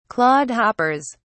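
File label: "clodhoppers", British English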